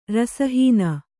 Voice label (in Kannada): "ರಸಹೀನ", Kannada